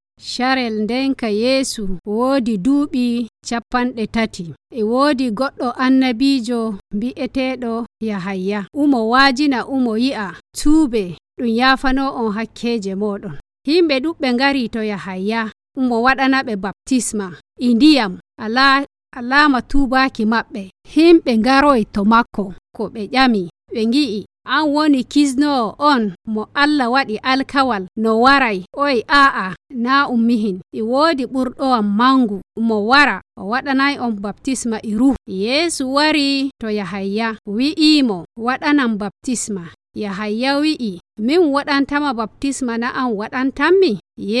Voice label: Fulfulde Nigerian; The Baptism of Jesus